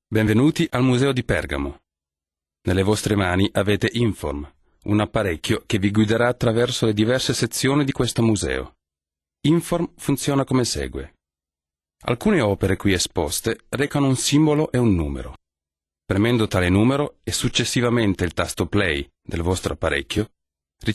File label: guide, maenner; aus aller welt; pergammon